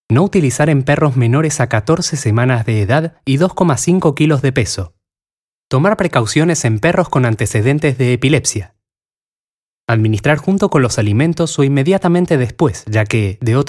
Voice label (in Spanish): argentino